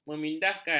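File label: "memindahkan", Malay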